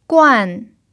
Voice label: "guan4", Mandarin pinyin